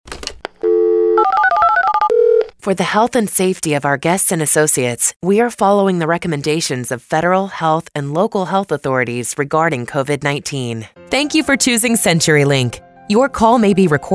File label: English American IVR